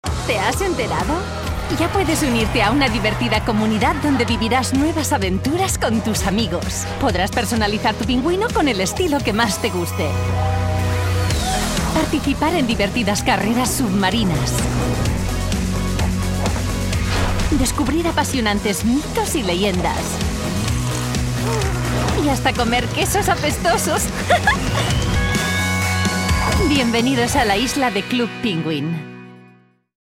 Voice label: spanish trailer